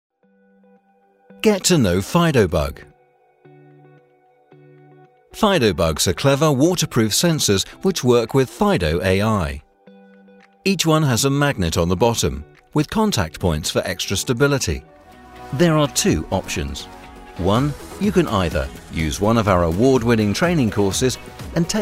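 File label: Explainer, British Male Voice Over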